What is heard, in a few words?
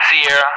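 "Sierra", English; Alphabet; DCS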